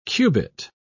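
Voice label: "cubit", British English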